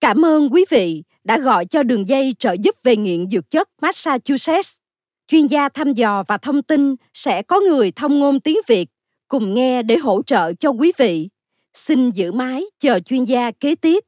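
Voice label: Vietnamese telephony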